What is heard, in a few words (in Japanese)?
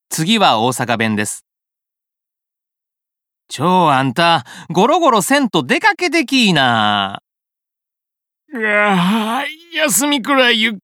方言